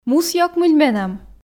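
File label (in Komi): "мусъёкмыль менам", Komi, female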